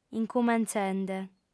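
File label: "incumentzende", female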